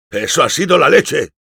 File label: positive vocalization, es; Engineer